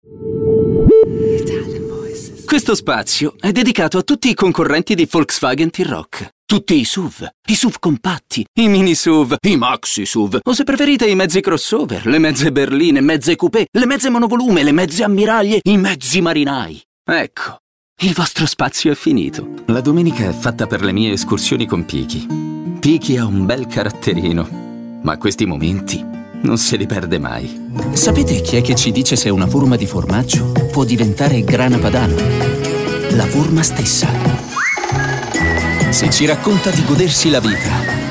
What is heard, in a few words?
ITALIAN VOICES Natural Contemporary, male voices